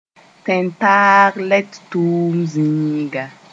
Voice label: "tẽn-taag lɛt tʋʋm zĩiga", Moore

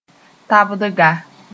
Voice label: "tabdga", moore; tobacco field

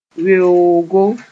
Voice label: "weoogo", moore

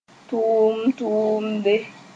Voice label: "tʋʋmd-tʋʋmde", moore

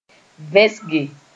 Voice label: "vẽsge", moore

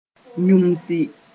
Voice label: "yũmsi", moore

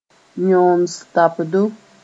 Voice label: "yõens-tabdo", moore

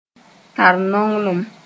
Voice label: "tar nonglem", moore

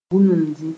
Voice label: "bũnumdi", moore

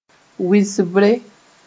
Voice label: "wɩsbre", moore

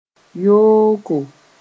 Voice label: "yooko", moore; bad

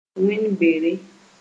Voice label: "wẽn-bere", Moore

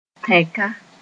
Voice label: "tẽka", Moore

